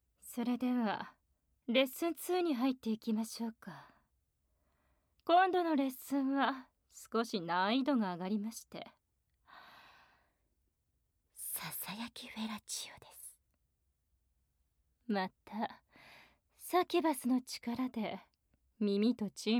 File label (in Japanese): 左右交互囁きフェラチオ